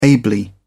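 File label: "ably", British English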